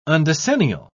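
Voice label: "undecennial", British English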